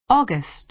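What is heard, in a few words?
"august", American English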